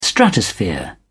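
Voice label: "stratosphere", British English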